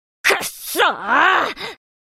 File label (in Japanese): エモーション（怒る）; 「くっそー！」